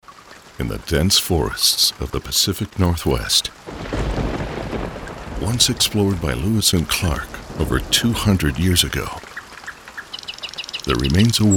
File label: Narration Audio Book Documentary